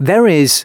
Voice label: announcements, male